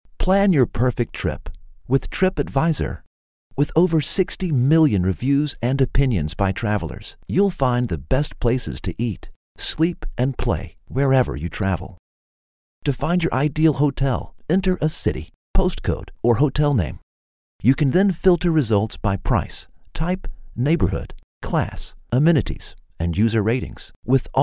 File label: Male American